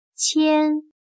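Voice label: "千" Chinese, qiān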